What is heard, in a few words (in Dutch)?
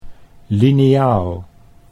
"lineaal", Dutch